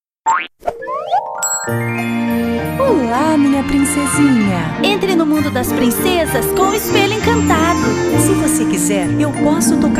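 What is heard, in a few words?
Portuguese Brazilian female Childrens Narrative; voice over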